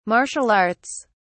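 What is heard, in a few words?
"martial arts", British English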